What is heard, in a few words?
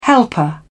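"helper", British English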